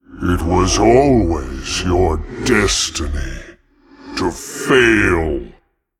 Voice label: Male Demon; MalGanis; Uther